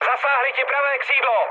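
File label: right wing, Czech, mood med; speech; War Thunder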